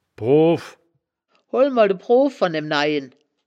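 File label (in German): "proow", Wittlicher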